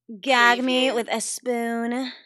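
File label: Teen Girl Says Gag Me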